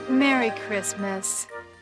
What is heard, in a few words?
merry christmas female; Voice; xmas